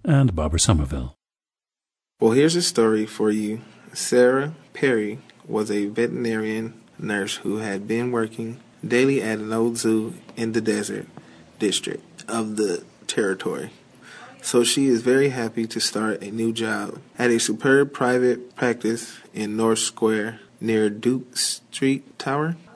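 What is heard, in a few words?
california, International Dialects of English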